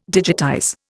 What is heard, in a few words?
"digitize", Indian